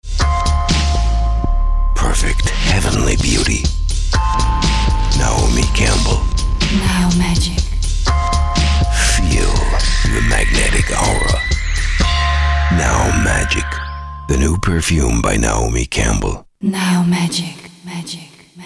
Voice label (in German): us englisch